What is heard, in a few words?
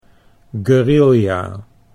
"guerilla", Dutch